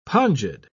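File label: "pongid", British English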